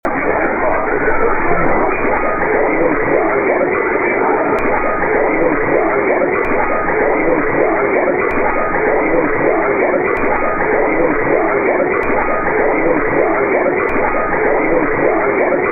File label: quick id only, mw dxing; 1320; watr